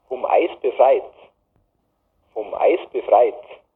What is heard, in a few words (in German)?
Mundart; tannheimertal